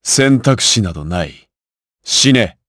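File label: Vox Skill, voices, Japanese; Lusikiel; kingsraid; voices heroes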